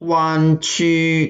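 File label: "wan1qu1", Mandarin Chinese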